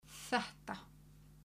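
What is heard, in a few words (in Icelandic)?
"þetta", Icelandic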